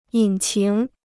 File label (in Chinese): "引擎", Chinese